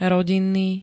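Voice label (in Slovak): "rodinný", Slovak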